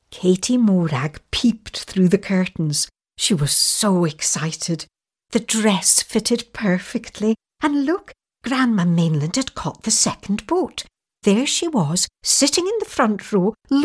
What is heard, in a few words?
mature Scottish audiobook